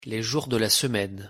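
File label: "les jours de la semaine", French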